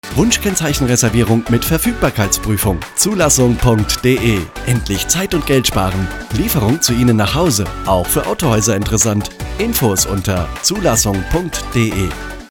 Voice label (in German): radiospot